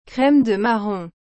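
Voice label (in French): "crème de marron", French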